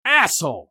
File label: battle cry; eft; usec